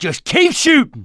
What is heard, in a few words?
battle cry tank, mechanic, survivor, voice